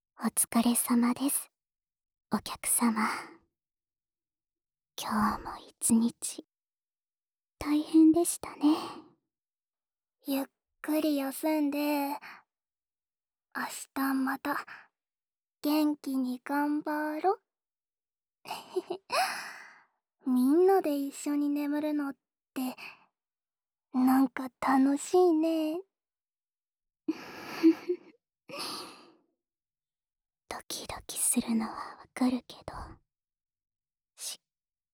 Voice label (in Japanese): 添い寝 二人 逆, ASMR